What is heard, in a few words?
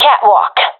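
location catwalk, professional female; vo; Counter Strike Model Pack; CS:GO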